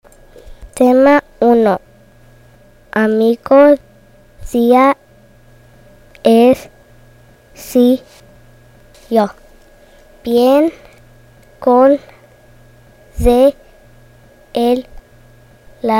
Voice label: High Frequency Spanish